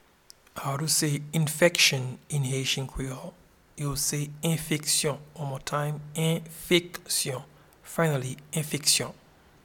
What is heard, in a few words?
Infection in Haitian Creole Enfeksyon, Pronounce "Enfeksyon" in Haitian Creole audio; “Infection” (English) translates as “Enfeksyon” in Haitian Creole